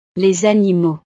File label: "animaux", francés